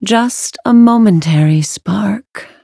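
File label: level up, English; cookie run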